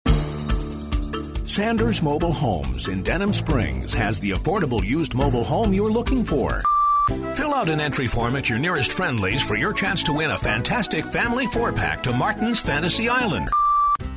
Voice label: male US retail